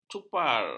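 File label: "cupar", Malay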